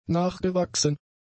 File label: "nachgewachsen", German; adjectives